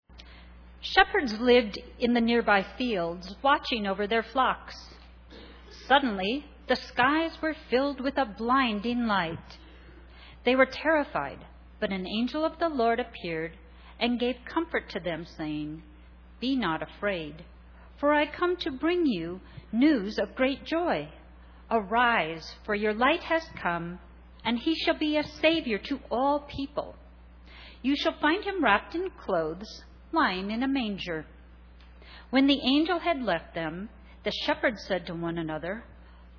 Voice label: Narration; Cantata